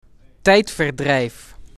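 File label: "Tijdverdrijf", Dutch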